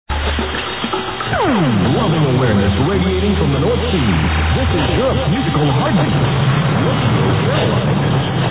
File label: jingle; pirate radio